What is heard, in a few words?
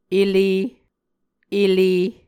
"elee", muscogee